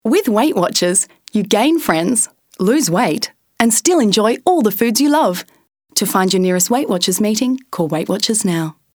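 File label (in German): English UK Werbung Freundlich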